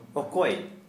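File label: Santali, male, who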